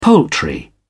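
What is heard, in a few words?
"poultry", British English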